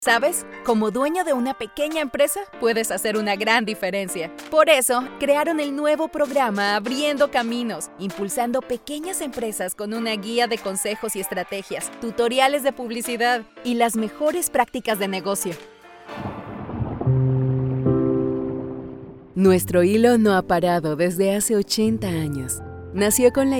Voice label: locutora espanol neutro american spanish voiceover; Latin American spanish voice over